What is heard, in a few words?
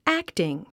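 "acting", English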